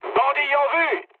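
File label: enemy detected, mood high, French; War Thunder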